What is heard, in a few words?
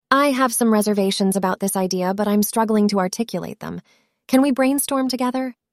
synthesized audio